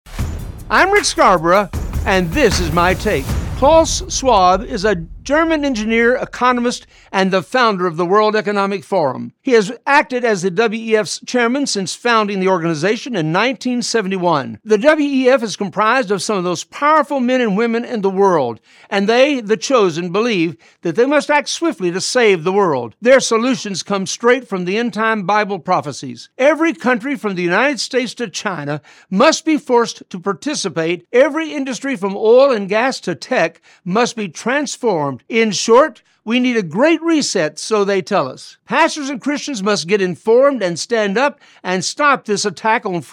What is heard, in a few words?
Radio Spot; WEF